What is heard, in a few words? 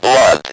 speak and spell BLOOD; WORDS